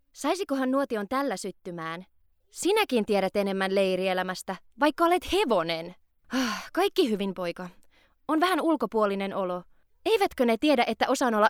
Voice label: finnish f narration; voice talents